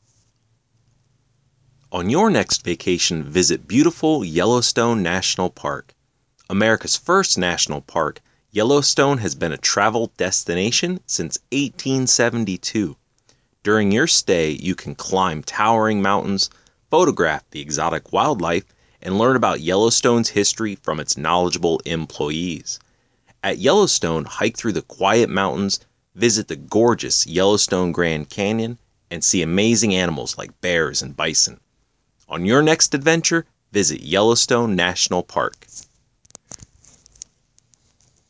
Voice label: radio ad